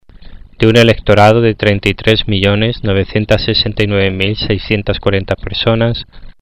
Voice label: Spanish